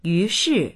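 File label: "yu2shi4", Chinese; yúshì; 于是; そこで、それで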